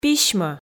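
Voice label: "письмӧ", Komi, female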